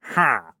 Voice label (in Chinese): 流浪商人否定声